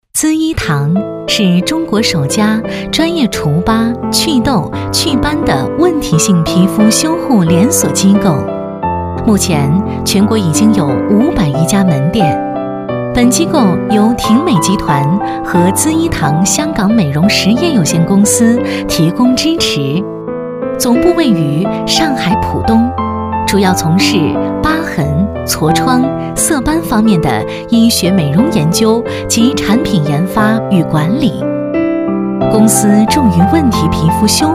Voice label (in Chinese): 女 广告 介绍广告 皮肤